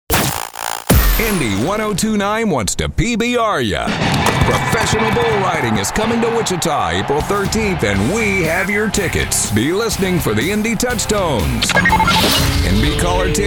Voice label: Imaging, male voice talent

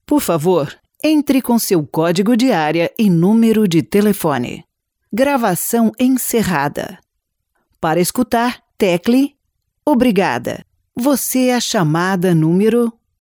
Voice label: Brazilian Portuguese; foreign languages

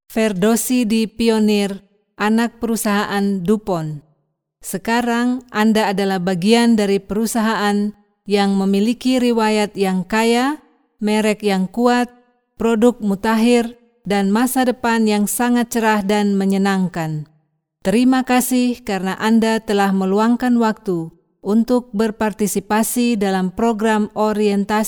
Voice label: Indonesian; foreign languages

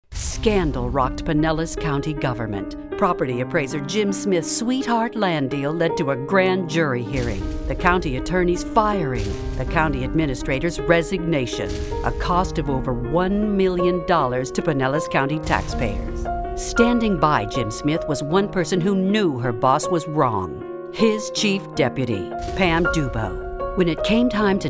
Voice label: Political, female voice

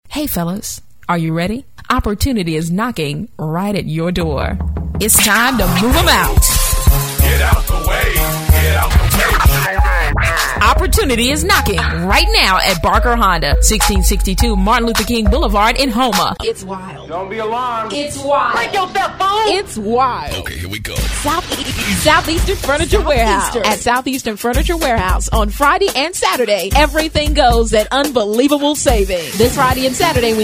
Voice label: African American; foreign languages

